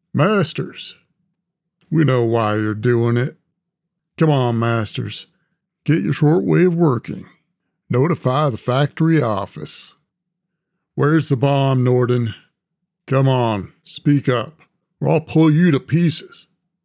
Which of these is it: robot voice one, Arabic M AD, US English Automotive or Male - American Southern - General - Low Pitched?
Male - American Southern - General - Low Pitched